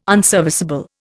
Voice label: "unserviceable", Indian